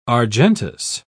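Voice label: "argentous", British English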